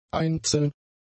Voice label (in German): "einzeln", german; adjectives